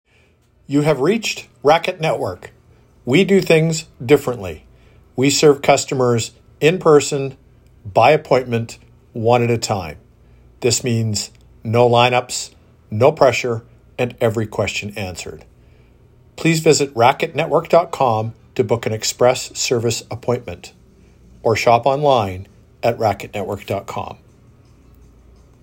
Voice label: phone message